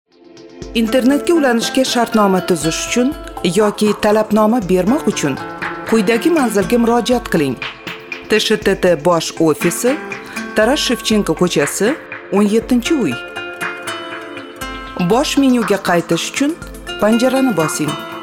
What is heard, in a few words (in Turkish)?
Ozbekce Kadin, seslendirme; Kadın Ses